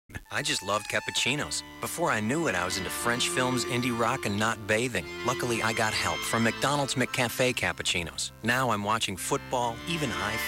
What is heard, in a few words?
commercial; mcdonalds